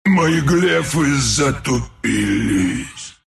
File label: death, ru